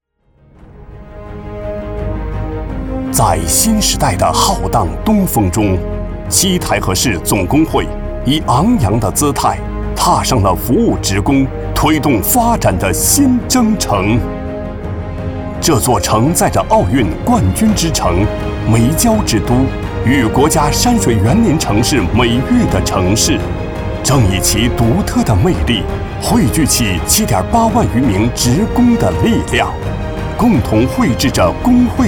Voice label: 男 工会; 工会促进会

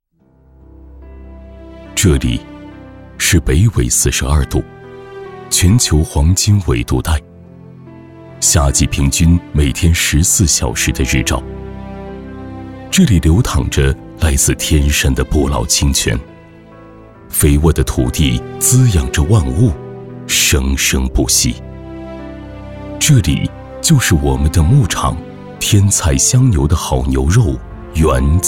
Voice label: 男 大气形象片

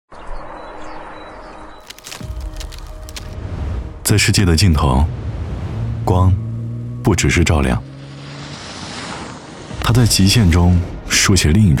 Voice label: 男 广告; 东风风神S7

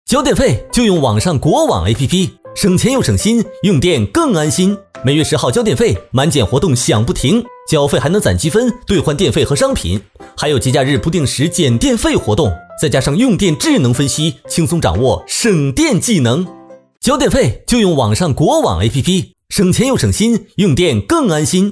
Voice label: 男 MG动画

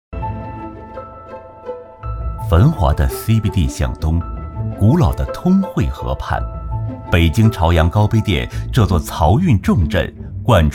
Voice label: 男 纪录片; 高碑店

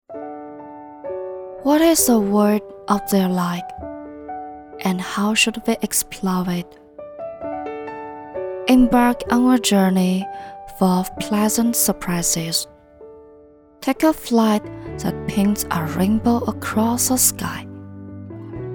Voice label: 女 英 温情

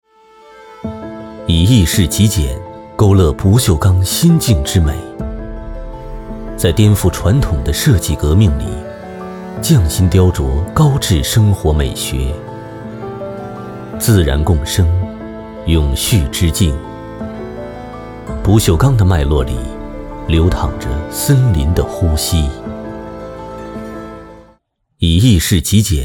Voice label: 男 科技感; 不锈钢

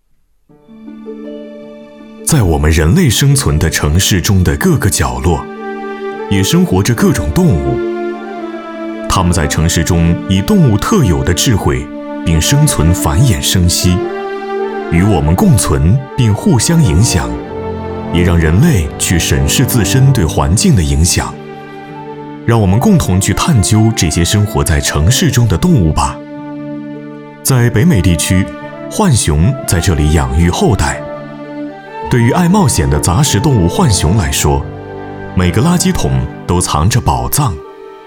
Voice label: 男 纪录片

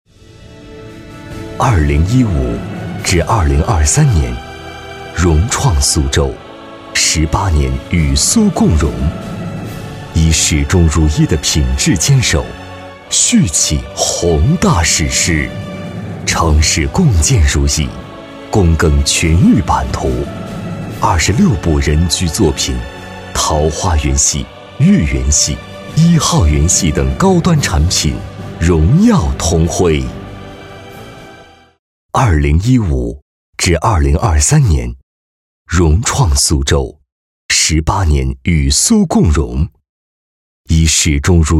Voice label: 男 房产 恢弘; 融创苏州

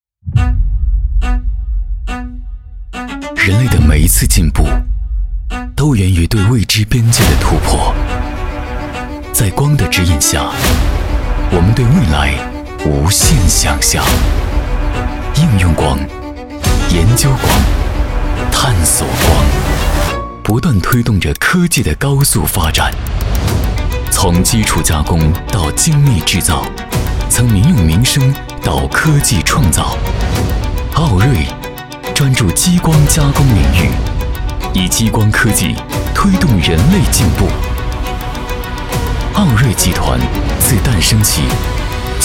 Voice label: 男 大气质感; 奥瑞

